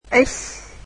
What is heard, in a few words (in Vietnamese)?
"x", Vietnamese alphabet